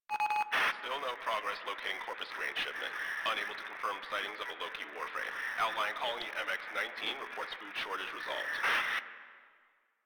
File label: Announcements, Radio Interceptions